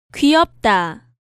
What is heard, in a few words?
"Gwiyeopda", Korean